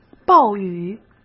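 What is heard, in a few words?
"暴雨", Mandarin Chinese; chinese flashcards; hsk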